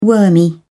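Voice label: "wormy", British English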